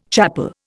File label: "chapel", Indian